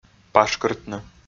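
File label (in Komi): "пашкыртны", Komi, male; audio dict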